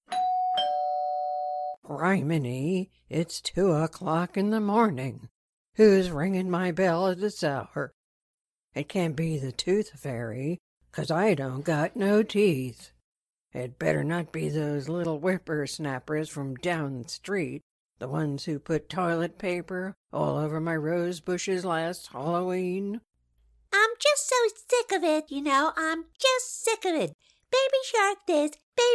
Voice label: Characters old young

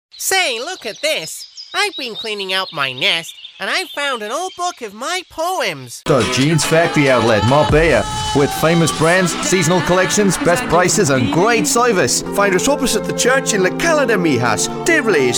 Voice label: Characters and Accents

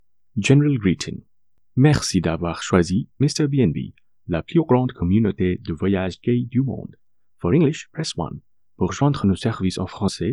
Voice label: French IVR

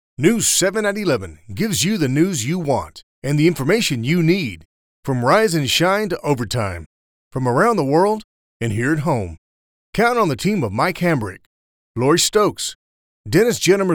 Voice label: News

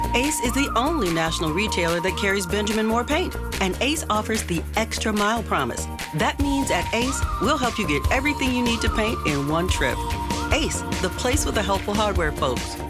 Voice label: Commercial; Ace Hardware

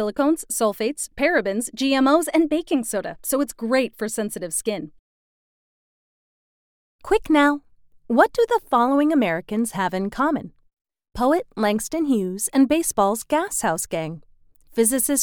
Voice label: Ad with Millenial Voice

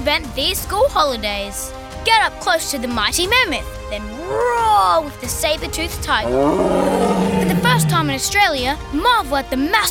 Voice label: Sydney Zoo Megalodon, Child, Boy, Kid Male Voice

